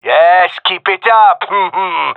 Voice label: round winning, gendarmerie male